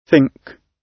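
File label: "think", British English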